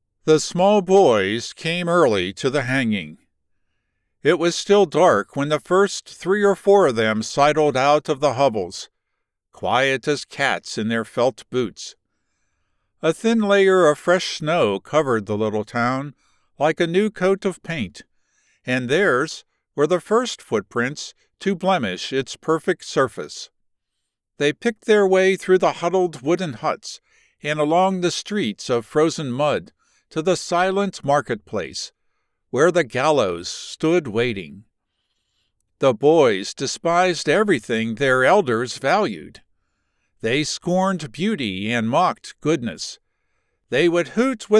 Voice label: Fiction 3rd-person narration